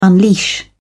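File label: "unleash", English, British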